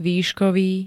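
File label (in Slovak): "výškový", Slovak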